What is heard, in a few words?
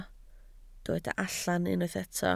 "allan", Welsh